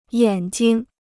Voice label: "眼睛", Chinese

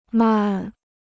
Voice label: "漫", Chinese

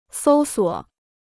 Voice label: "搜索", Chinese

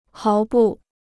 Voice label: "毫不", Chinese

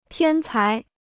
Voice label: "天才", Chinese

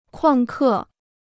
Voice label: "旷课", Chinese

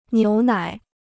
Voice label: "牛奶", Chinese

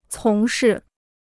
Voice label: "从事", Chinese

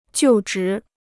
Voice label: "就职", Chinese

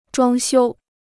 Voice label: "装修", Chinese

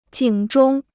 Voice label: "警钟", Chinese

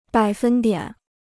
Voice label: "百分点", Chinese

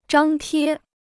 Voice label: "张贴", Chinese

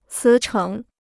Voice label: "辞呈", Chinese

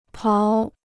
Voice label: "刨", Chinese

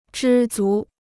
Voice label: "知足", Chinese

